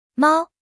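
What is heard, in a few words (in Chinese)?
"猫", Chinese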